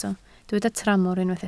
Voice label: "tramor", Welsh